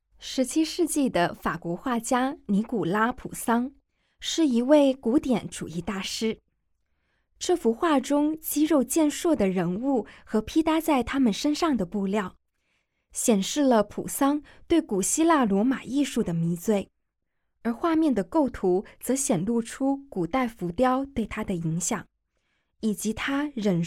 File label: Mandarin, audio guide; g9-botticelli-to-van-gogh